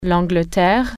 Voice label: england, french